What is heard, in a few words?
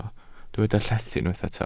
"llethu", Welsh